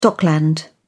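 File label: "dockland", British English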